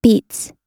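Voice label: "beats", American English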